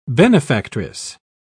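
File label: "benefactress", English, British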